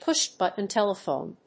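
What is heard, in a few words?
"push-button telephone", English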